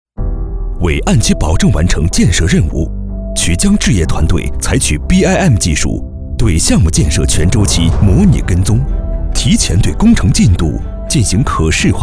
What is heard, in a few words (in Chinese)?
男 专题片 BIM工程; BIM工程技